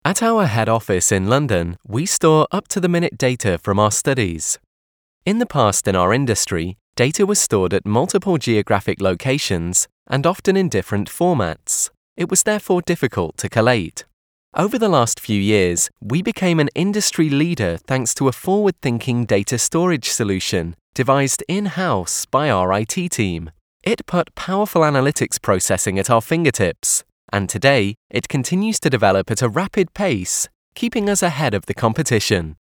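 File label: neutral British accent example, Male; professional English voice talent with a neutral or northern UK accent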